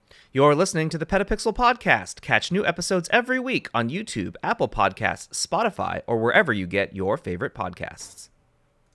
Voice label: SM7db sample